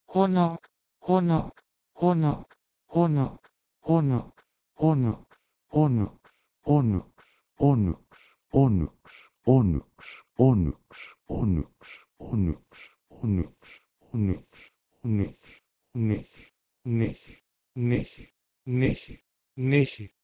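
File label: Proto-Indo-European h3nogwh to Modern Greek nychi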